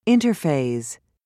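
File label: "interphase", British English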